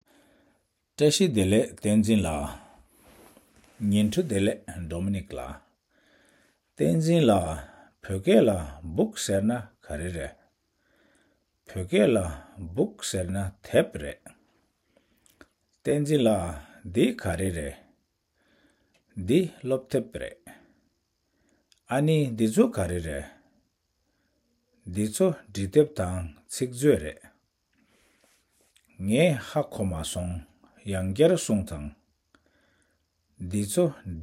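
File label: Dialogue Classroom, Tibetan; Chapter 6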